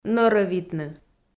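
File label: "нӧрӧвитны", Komi, female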